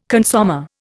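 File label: "consommé", Indian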